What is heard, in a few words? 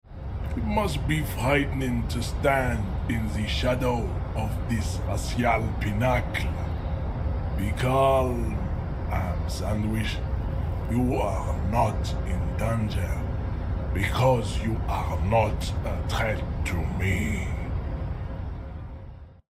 voiceover; measurehead